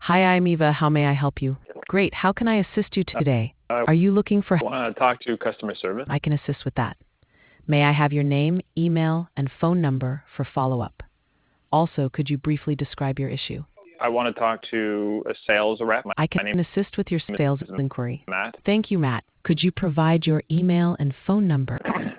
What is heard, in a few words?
conversation